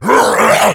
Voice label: pain; nuke; redead